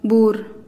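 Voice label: "buur", Teotitlán del Valle Zapotec; donkey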